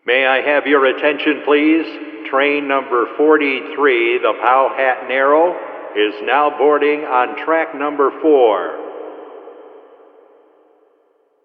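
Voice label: Train Announcement Railroad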